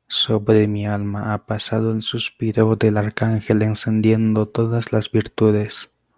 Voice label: spanish